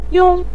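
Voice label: "yong" neutral tone, Mandarin Chinese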